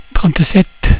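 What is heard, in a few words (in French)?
"trente sept", French; cat numeration doigts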